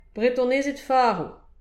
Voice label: "bretonezed farv", Breton